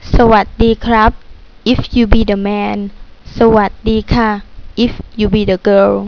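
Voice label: "sawadee", Thai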